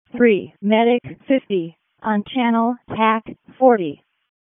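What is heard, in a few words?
Fire Department Alert, p25; wake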